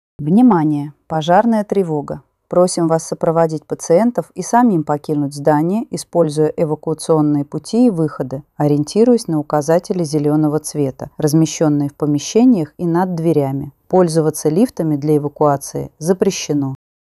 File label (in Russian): opoveshchenie soprovozhdenie pacientov